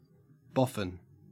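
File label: "boffin", Australian English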